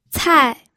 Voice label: "cai4", pinyin